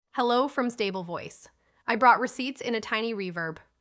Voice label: Balanced and lively, the safest default for general assistant or product narration.; balancedlivelyagentic